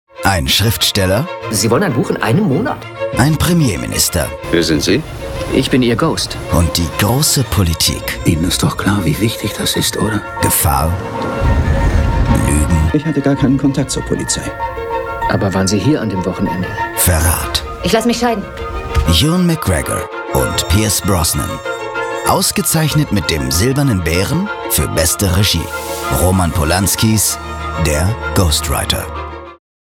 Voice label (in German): Off Stimme Filmtrailer; Ghostwriter